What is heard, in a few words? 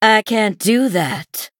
Female Sanlayn Emote ERROR GENERIC